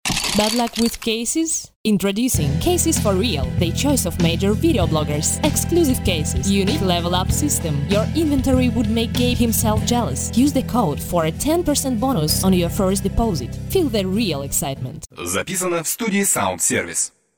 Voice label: english